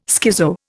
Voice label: "schizo", Indian